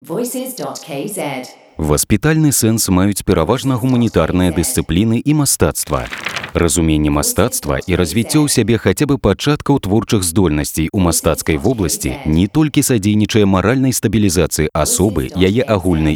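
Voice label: Belarusian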